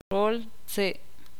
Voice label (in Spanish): bosque, ixil